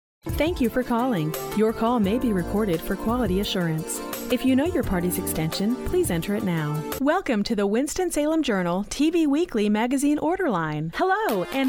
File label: Phone Hold IVR Female; commercial voiceover